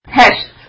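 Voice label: "hesht", Kurdish, female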